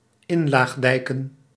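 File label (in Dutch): "inlaagdijken", Dutch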